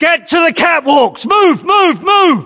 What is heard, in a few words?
voiceovers UK; ModernWarfare; localized english; Cargoship